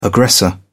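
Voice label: "aggressor", British English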